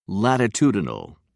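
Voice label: "latitudinal", British English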